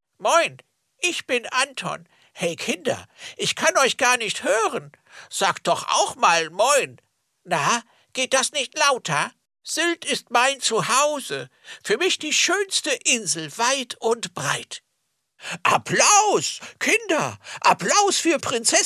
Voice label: Comic bird kids; Austernfischer; Anton Austernfischer